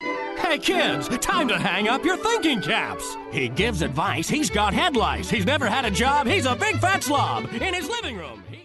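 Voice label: 50s announcer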